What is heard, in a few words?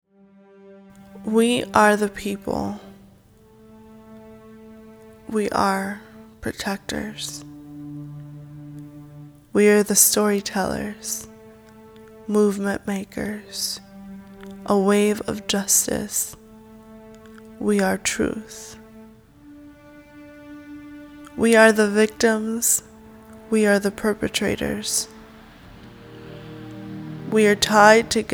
Outro Poem with music